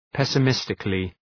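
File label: "pessimistically", English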